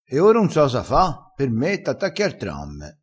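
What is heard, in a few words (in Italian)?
"attaccassi ar tramme", dialettale crespinese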